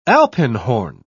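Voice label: "alpenhorn", British English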